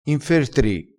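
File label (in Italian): "infertri", dialettale crespinese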